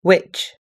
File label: "witch", US English